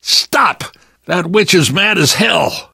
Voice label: witch getting angry, survivor voice